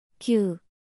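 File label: "규", Korean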